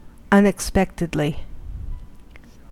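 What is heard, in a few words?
"unexpectedly", US English; pronunciations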